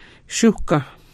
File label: "shuhga", Hidatsa; broad wide